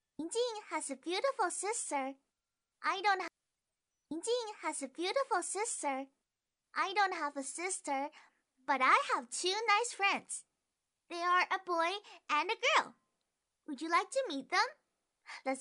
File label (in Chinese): 美籍可爱女童